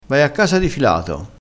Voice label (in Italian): "di filato", dialettale